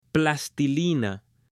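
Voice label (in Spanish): "plastilina", Spanish, male; JUGUETES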